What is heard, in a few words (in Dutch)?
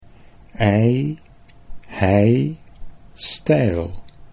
IJ hij, Dutch